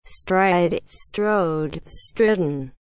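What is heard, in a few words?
"stride", English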